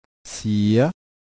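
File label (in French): "phii", ton montant, thailandaise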